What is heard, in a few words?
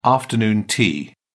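"afternoon tea", British English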